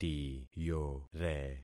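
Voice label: "tiyore", concatenated syllables; Affixing experiment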